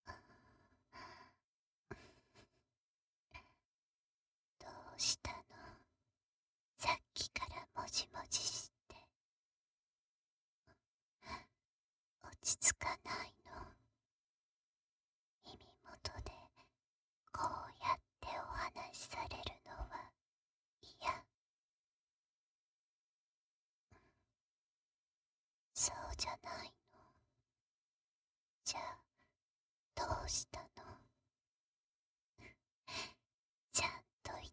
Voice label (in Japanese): 囁き＋耳舐めパート（右耳）